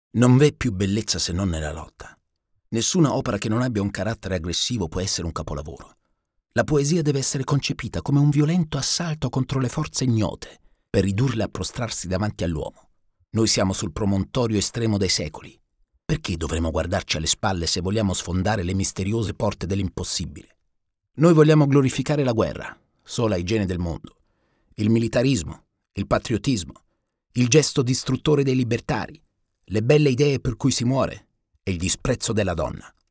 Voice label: Voice Over Film